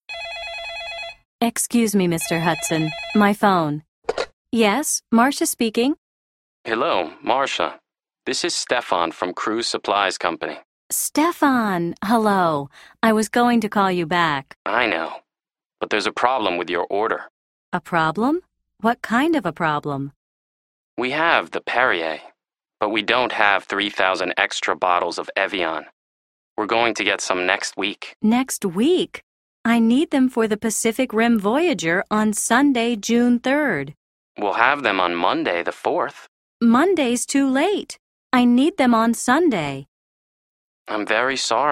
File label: Telephone, english listening survival